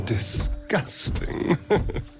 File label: Disgusting